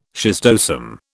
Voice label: "schistosome", British